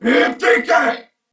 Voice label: Attack, Corpus Venus Male

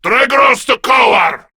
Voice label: Attack, Male

